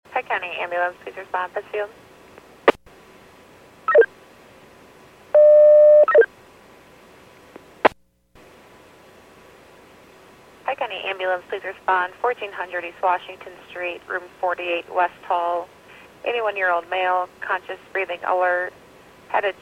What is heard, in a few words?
PAGING